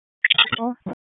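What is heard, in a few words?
Fire Department Alert, P25; RFD ALERT; wake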